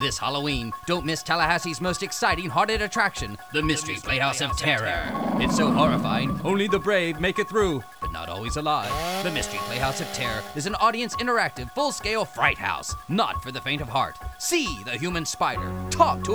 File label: radio